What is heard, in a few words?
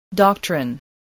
"doctrine", spelling